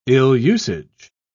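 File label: "ill-usage", British English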